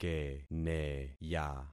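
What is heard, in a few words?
"keneya", concatenated syllables; Affixing experiment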